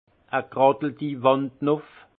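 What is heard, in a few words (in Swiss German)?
"graddel", alsace Haut-Rhin; vin; fait grimper aux murs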